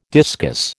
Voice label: "discus", American

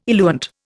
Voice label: "eluant", Indian

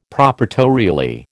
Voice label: "proprietorially", American

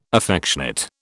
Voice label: "affectionate", British

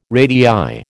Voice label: "radii", American

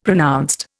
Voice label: "pronounced", Indian; Dictionary

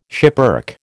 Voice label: "schipperke", American

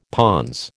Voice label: "pons", American

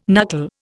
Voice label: "knuckle", Indian

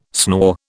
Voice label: "snore", British

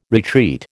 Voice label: "retreat", American

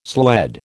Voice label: "sled", American

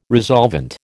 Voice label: "resolvent", American

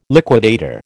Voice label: "liquidator", American

